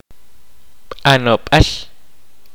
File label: kaqchikel; Religious custom